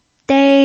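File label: "dei" first tone, Mandarin Chinese pinyin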